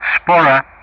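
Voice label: "Shpora", English; Ka-50; Callsign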